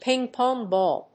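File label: "ping-pong ball", English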